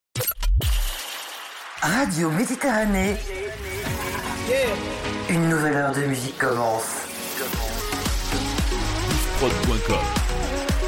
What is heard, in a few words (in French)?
Sweep Soleil du sud; Radio Mediterranee